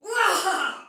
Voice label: Attack Soldier Female, Battle Barks; Sinecure